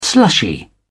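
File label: "slushy", English, British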